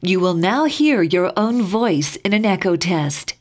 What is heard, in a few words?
echo test; ASTERISK